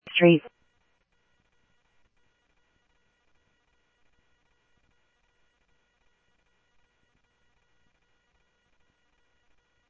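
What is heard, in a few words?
Phoenix police department, scanner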